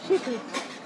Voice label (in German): Dialekte; GHV Dialekte 2018